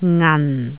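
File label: "ngan4", Cantonese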